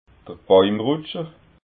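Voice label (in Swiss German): "bauimrutscher", Alsatian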